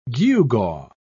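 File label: "gewgaw", British English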